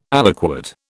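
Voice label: "aliquot", British English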